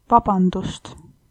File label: sorry, estonian